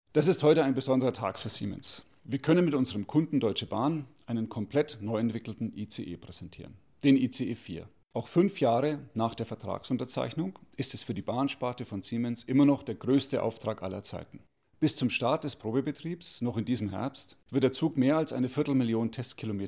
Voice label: interview; siemens; roland busch